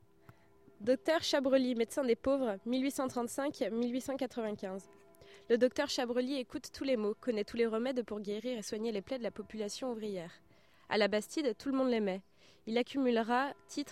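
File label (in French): place Calix anglais; francais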